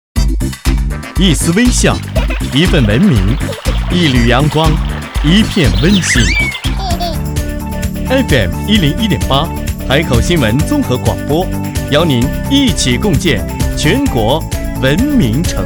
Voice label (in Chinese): 宣传